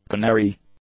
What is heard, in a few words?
"canary", English; nouns